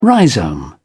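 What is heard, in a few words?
"rhizome", British English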